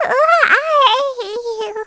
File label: Kirby squashed voice